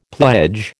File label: "pledge", American